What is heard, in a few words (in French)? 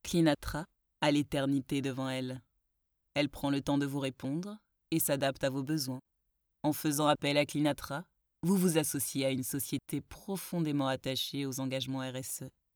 Voix off Seductrice; Cleanatra